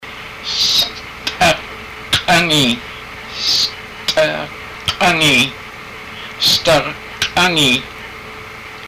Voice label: toes, haida